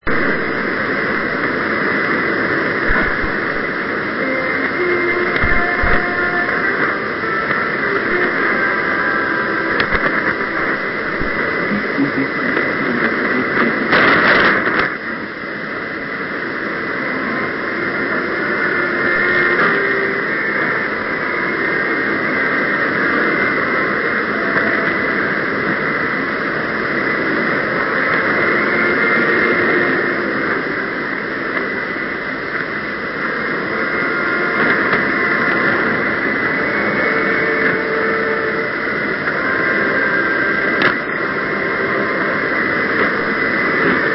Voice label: Radio Feonny Filazantsara 3215kHz opening